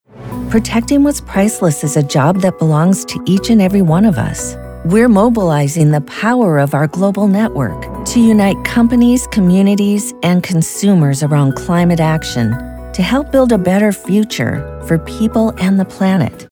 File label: spot; Mastercard